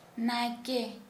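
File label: priest, Santali, female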